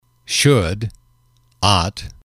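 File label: "should ought", English, male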